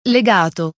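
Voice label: "legato", Italian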